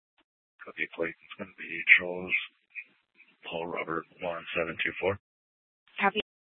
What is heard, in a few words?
Mesa PD Fiesta Dispatch